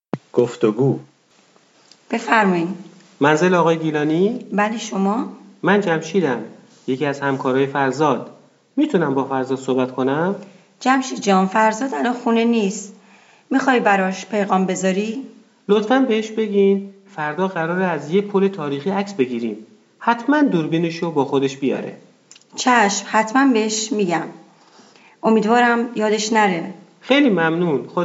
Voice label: Dialog, persian